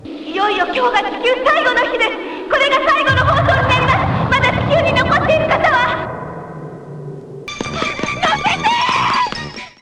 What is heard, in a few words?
female reporter; dbgt